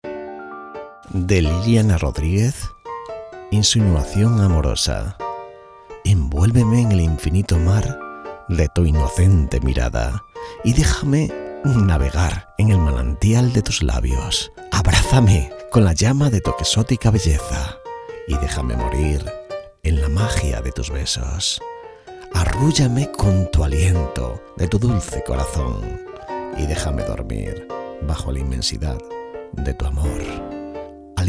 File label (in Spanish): poema romantico; insinuacion amorosa